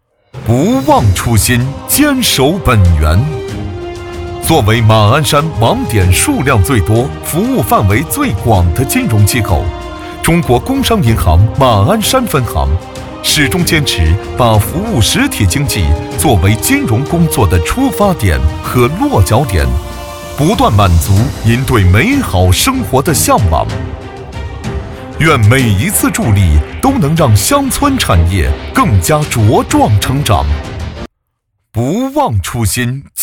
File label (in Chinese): 大气专题; 工商银行